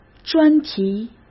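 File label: "专题", Mandarin Chinese; hsk; chinese-flashcards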